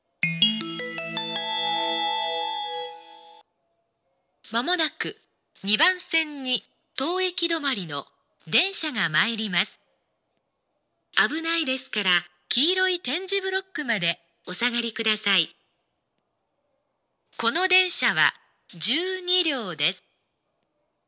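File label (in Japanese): 接近放送, 東京駅; ２番線接近放送; 中央線（快速）; 御茶ノ水・新宿・中野・三鷹・国分寺・高尾方面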